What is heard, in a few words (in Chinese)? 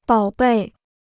"宝贝", Chinese